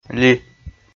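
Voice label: "ли", Komi, male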